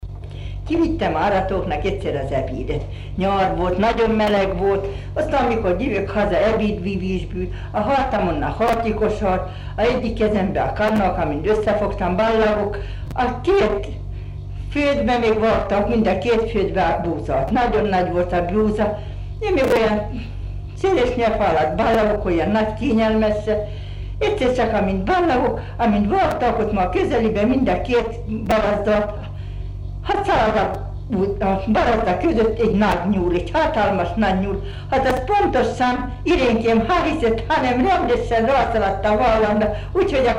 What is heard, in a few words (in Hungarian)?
nyelvjárás gyűjtések